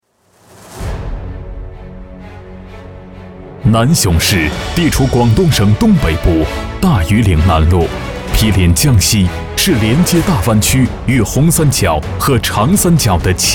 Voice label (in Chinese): 城市宣传片配音; 南雄市